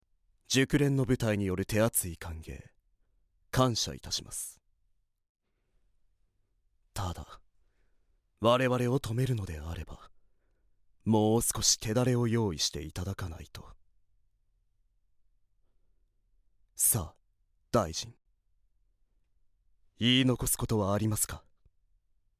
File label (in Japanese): クールな青年